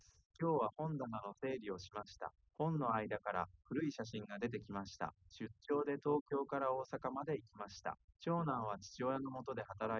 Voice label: male liverec; SiSEC10; underdetermined

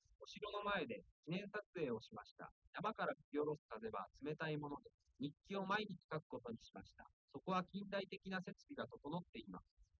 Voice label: male liverec; SiSEC10; underdetermined

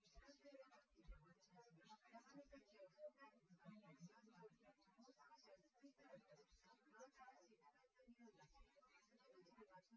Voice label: female; SiSEC08; SiSEC underdetermined

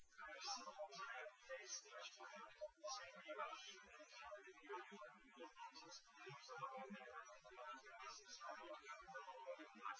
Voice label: male liverec 250ms 5cm

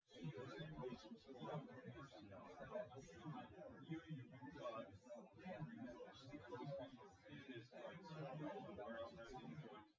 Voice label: test male synthconv 250ms 1m sim

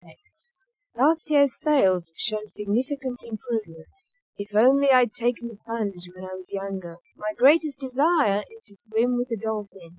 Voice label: female inst src; SiSEC11; underdetermined

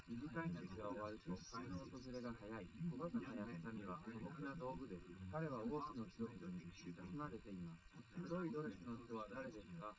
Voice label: male, live rec, 130ms; SiSEC11; underdetermined

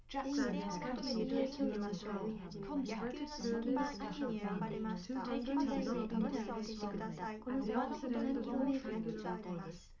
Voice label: female liverec 1m mix; SASSEC07

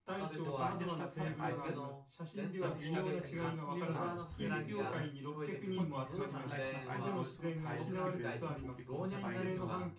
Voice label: male synth conv mix

